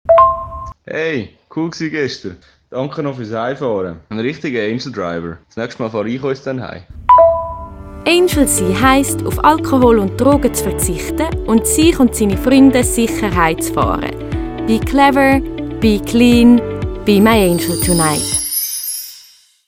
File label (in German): jingles maennlich; my105